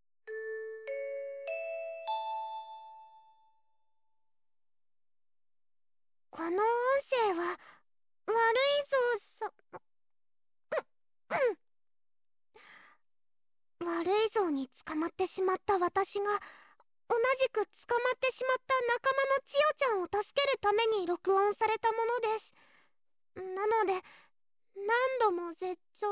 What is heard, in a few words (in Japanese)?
おまけアナウンス